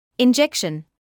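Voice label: "injection", British English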